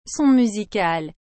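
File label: "son musical", French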